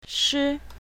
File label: "shi1", pinyin, Mandarin